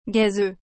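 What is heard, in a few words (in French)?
"gazeuse", French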